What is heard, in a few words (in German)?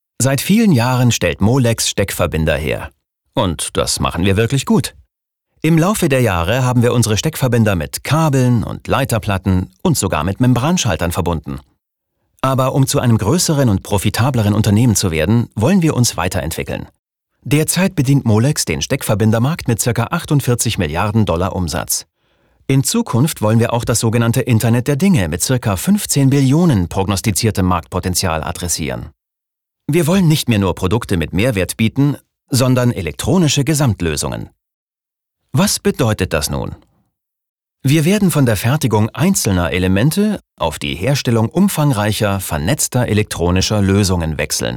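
advert, Neutral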